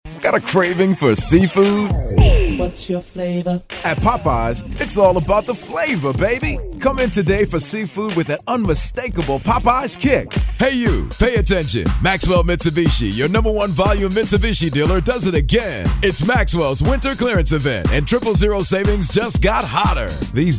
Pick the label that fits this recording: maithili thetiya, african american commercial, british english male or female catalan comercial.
african american commercial